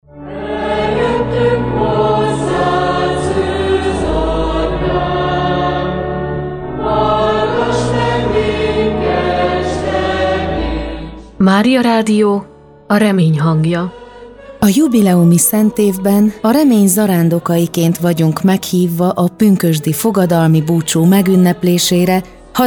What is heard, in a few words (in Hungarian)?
SPOT PUNKOSD; Punkosdi szpotok; Spot szombati miseig